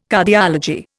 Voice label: "Cardiology", Indian